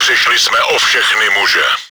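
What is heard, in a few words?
crew deactivated, чешской озвучки; Мы потеряли всех мужиков.; Czech; World of Tanks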